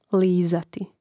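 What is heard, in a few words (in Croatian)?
"lizati", Croatian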